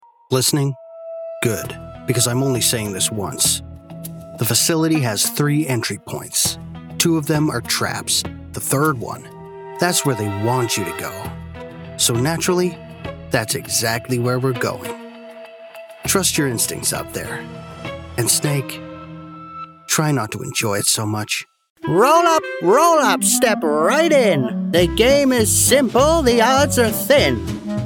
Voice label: Gaming Calculated Serious Professional Big Bold Showman